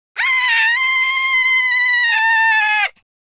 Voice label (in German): scared, German